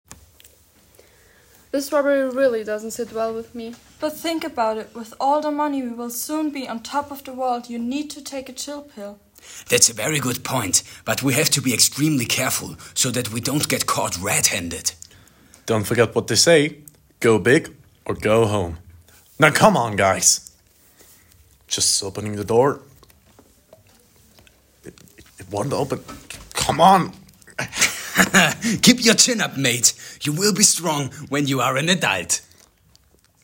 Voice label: conversation using idioms